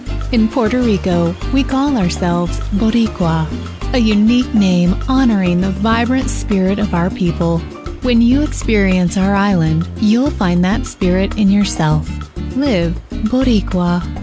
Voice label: English Voice Over Sample